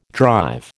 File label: "drive", American